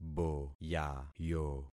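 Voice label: "boyayo", concatenated syllables; Affixing experiment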